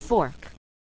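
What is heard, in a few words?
"fork", English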